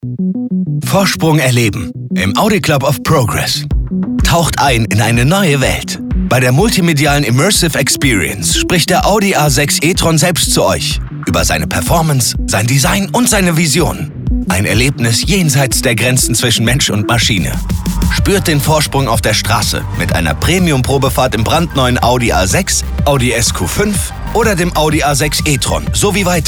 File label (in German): Radiowerbung, Commercial (Werbung), German; Audi